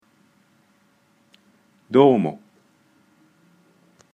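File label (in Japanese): あいさつ, japonais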